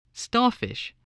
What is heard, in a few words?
"starfish", voice